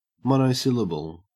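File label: "monosyllable", Australian English; pronunciations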